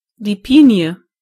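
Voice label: pine, German voices words; gcompris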